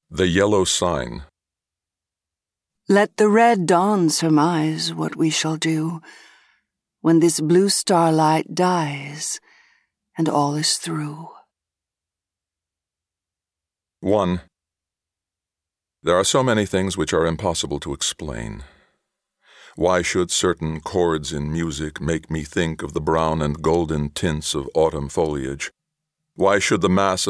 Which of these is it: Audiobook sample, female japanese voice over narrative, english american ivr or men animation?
Audiobook sample